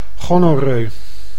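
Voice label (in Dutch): "gonnorroe", Dutch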